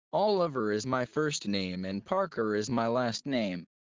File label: low; studying abroad